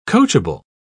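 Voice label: "coachable", English, British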